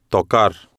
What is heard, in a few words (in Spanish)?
"tocar", Spanish